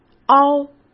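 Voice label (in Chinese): "凹", Mandarin Chinese; chinese-flashcards; hsk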